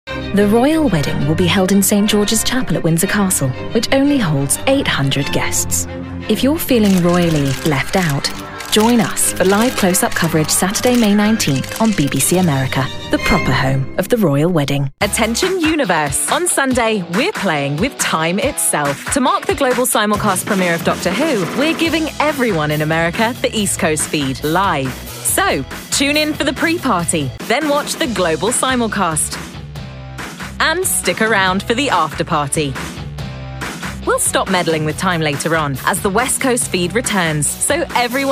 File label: Promo